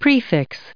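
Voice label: "prefix", us English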